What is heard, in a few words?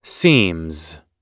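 "seems", US English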